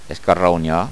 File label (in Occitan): "escarraunhar", Gascon